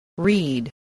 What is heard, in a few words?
"read", english